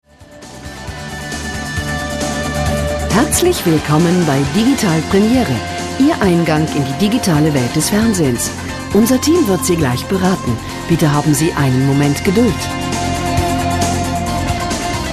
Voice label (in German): ansage vor melden; digitalpremiere